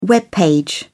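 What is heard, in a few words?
"web page", British English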